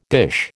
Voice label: "dish", American

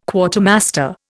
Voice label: "Quartermaster", Indian

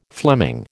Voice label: "Fleming", American

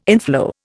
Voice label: "inflow", Indian

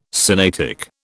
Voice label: "Sinaitic", British

